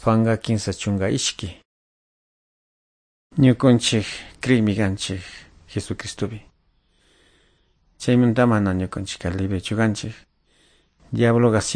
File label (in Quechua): good news quichua de calderon